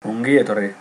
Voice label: "welcome", Basque